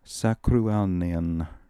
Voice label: "Sakrooalnian", pronounciations; Fourth Way; Beelzebub